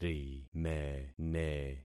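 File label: "rimene", concatenated syllables; Affixing experiment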